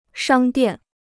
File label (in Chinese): "商店", Chinese